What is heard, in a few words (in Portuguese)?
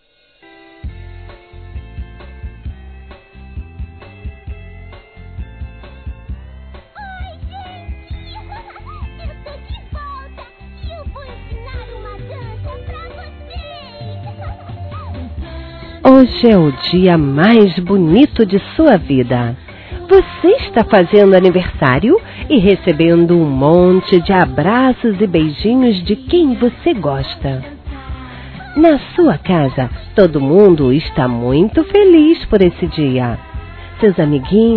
Neutro Criança Feminino; Aniversário Infantil; Telemensagens